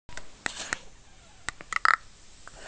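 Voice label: "che1", Mandarin Chinese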